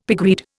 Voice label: "pigweed", Indian; Dictionary